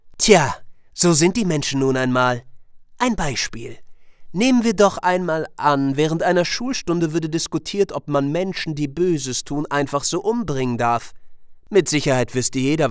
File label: Anime